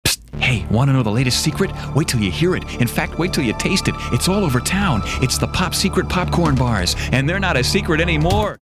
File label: confessional